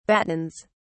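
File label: "battens", British English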